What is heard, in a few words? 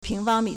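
"ping2fang1mi3", Mandarin Chinese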